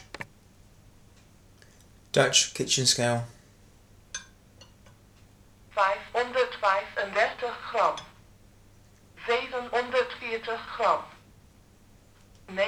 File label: Dutch Kitchen Scale; sprekende hulpmiddelen; huishouden